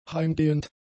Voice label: "heimgehend", German; adjectives